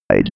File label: robot counting